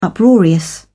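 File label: "uproarious", British English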